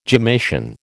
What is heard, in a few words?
"gemmation", American